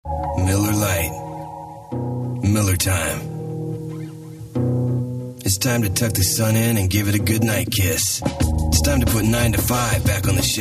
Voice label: raspy